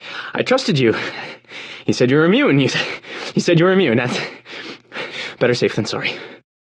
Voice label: radio initial broadcast; Left 4 Dead 2; Source Engine; video games; npc; churchguy